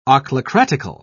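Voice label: "ochlocratical", English, British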